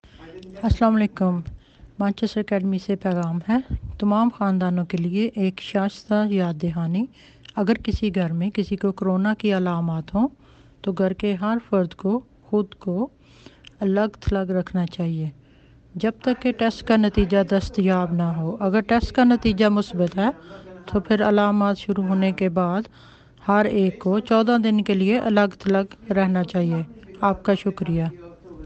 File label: Covid-19 Message in Urdu